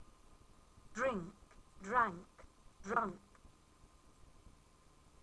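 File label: "drink", irregular verbs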